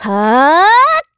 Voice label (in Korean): Vox Casting, kr; Ripine; kingsraid; voices heroes